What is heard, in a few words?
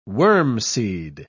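"wormseed", British English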